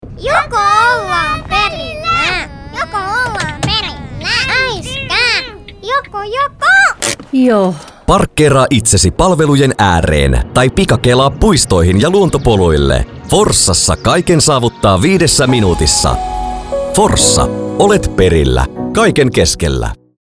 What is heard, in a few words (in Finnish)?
radiomainos; forssa; 20 sek spotti